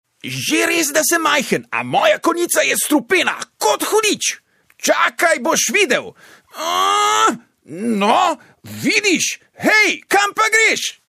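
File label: slovenian male character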